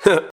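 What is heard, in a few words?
"huh", vocal sample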